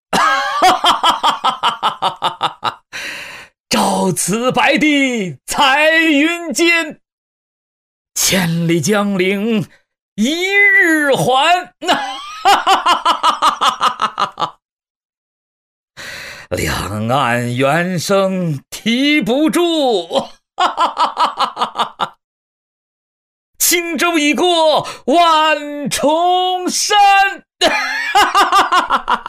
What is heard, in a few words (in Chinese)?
男, 角色 老年; 高端内涵 素人自然; 李白